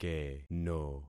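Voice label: "keno", concatenated syllables; Affixing experiment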